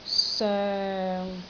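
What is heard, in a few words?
"soeng6", Cantonese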